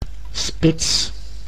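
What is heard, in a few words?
"spits", Dutch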